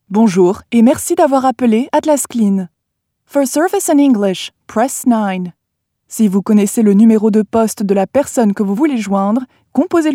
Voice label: Fransızca Kadın

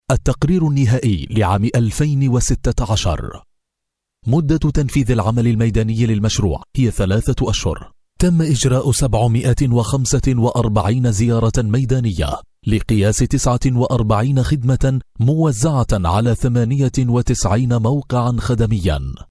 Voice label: Arapça

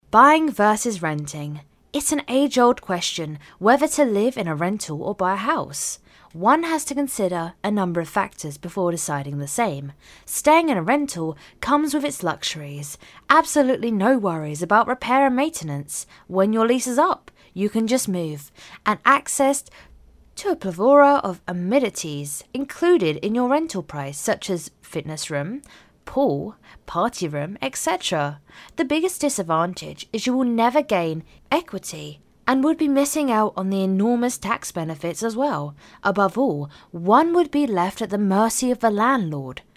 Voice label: İngilizce Kadın

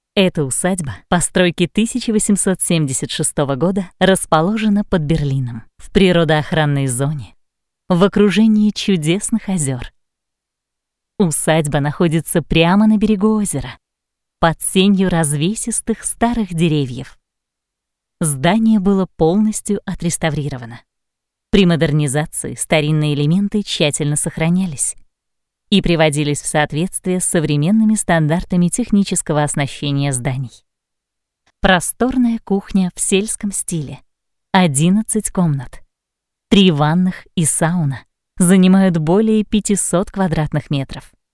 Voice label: Rusça Kadın

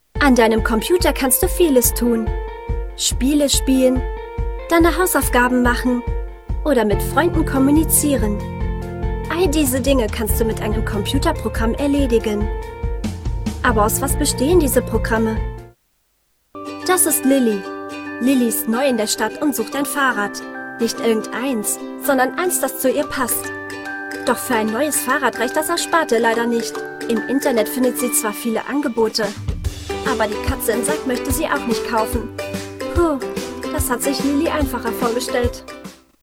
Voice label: Almanca Kadın

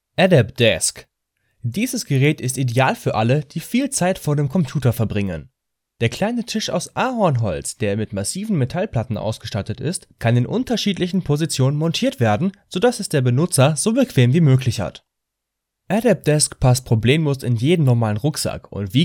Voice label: Almanca

